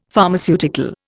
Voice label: "Pharmaceutical", Indian; Dictionary

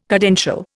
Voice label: "cadential", Indian

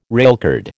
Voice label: "railcard", American

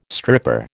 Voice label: "stripper", American

